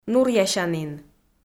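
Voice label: "нуръясянін", Komi, female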